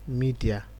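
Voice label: "media", Nigerian Pidgin, male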